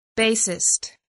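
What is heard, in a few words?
"bassist", English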